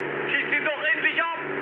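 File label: German aircraft damaged mood high, speech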